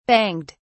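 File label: "banged", British English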